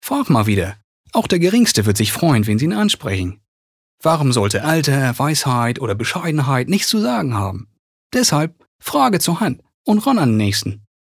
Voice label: Dialekt Hamburgerisch

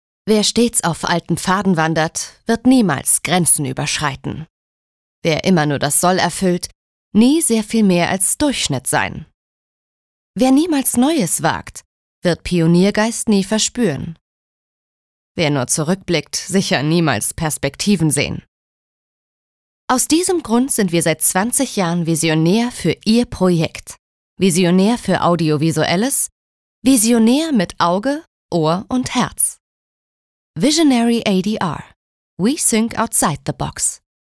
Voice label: Melancholisch

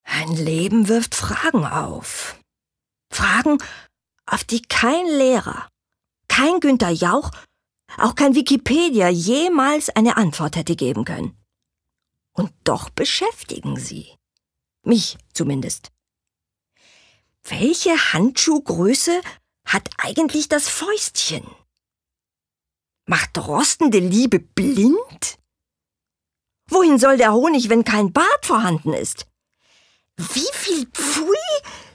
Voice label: Schauspiel Neutral

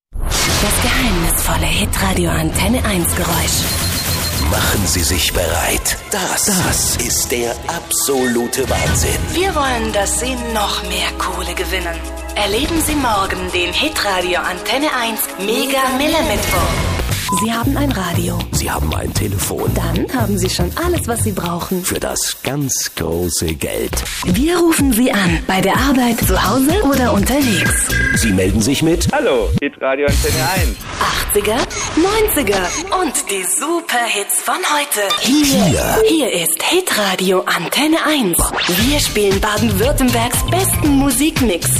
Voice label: Werbung Dynamisch, voices

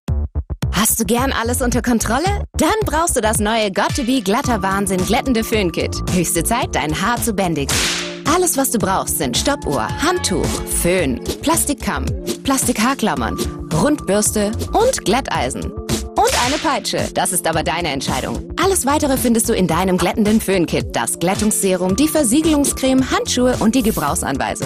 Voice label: Werbung Dynamisch

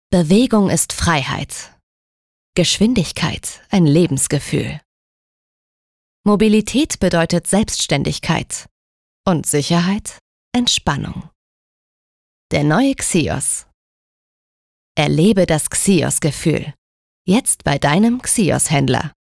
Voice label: Sanft; Xios

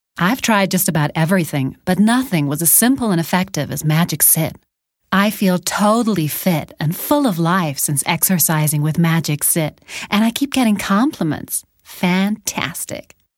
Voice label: Eng US Dynamisch

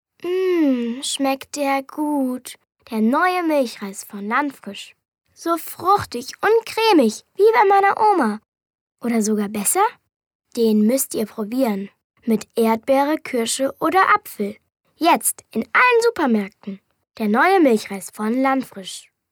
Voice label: Landfrisch Dynamisch

